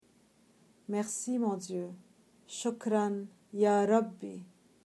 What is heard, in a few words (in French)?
merci dieu libanais